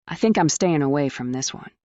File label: fem